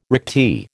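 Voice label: "recti", American English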